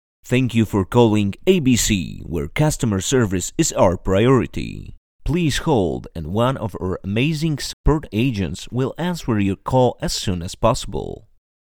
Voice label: eng, voice artists